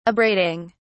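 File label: "abrading", English, British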